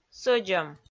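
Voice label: "сӧдзӧм", Komi, female; audio dict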